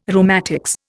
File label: "rheumatics", Indian